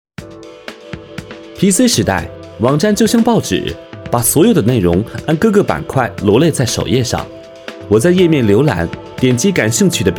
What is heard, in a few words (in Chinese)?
男声年轻活力科技旁白